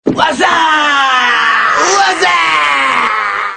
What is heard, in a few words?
wassaaap; scary movie parodia